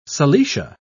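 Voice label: "silesia", British English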